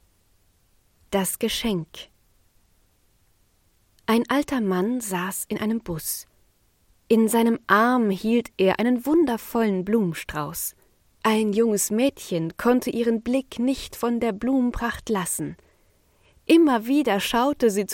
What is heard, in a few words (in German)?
Erzählung; Das Geschenk